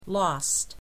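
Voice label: "lost", English word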